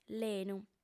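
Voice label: "lenu", Sardinian, female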